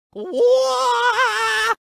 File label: Screaming; Luigi